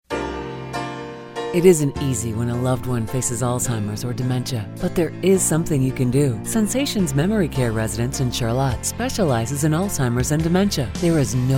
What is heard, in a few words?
Radio AD; Sensations